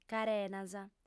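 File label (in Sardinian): "carenas", female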